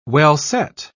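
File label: "well-set", British English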